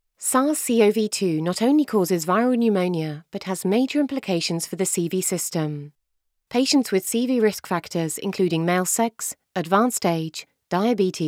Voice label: Studio Medical